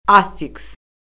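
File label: "affiks", Azerbaijani; Orfoqrafiya Lüğəti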